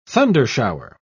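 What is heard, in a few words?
"thundershower", British English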